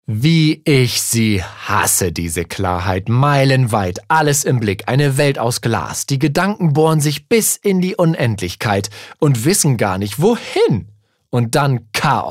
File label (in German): Dynamisch; Klarheit